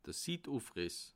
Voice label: "sittufriss", alsace

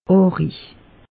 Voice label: "arig", alsace; meteo

